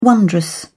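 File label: "wondrous", British English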